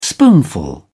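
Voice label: "spoonful", British English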